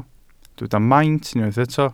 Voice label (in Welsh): "maint" Welsh